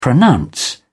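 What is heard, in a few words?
"pronounce", British English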